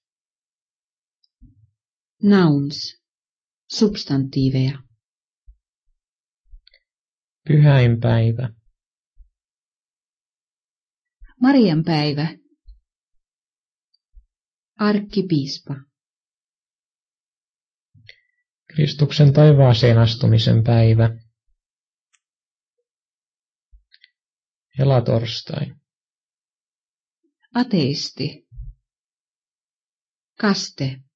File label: Conversational Finnish; FSI